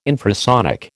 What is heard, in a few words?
"infrasonic", American